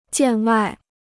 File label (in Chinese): "见外", Chinese